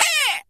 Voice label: "eh", old man; ooh ahh